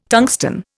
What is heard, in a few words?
"tungsten", Indian